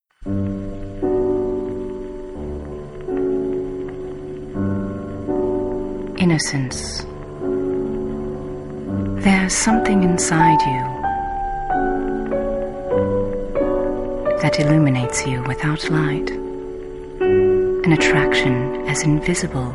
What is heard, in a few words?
promo; prada